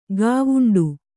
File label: "ಗವುಂಡಿ", kannada